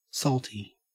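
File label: "saltie", Australian English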